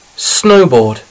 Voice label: "snowboard", English

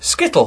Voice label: "skittle", English

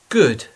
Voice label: "good", English

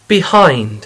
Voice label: "behind", English

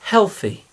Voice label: "healthy", English

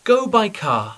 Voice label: "go by car", English

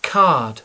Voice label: "card", English

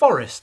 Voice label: "forest", English

